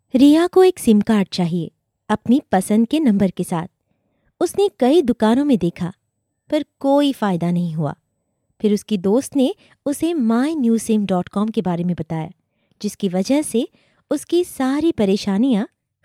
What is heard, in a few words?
Hindi F Explainer